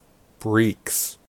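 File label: "breeks", US English; pronunciations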